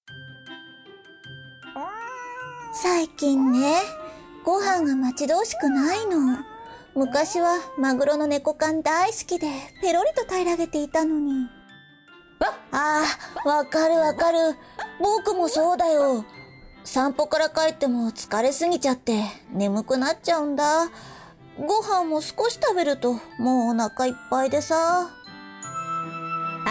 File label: narration; CＭナレーション